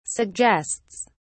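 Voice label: "suggests", British English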